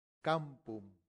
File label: "campum", latin; santillana